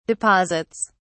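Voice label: "deposits", English, British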